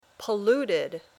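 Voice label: "polluted", English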